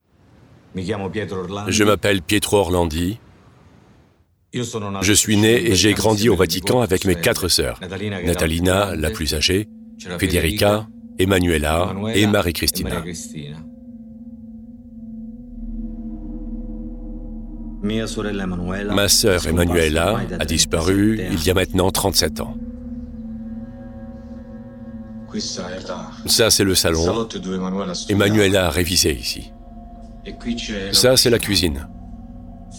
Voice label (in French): VOICE OVER DOCUMENTAIRE; Emmanuella la disparue du Vatican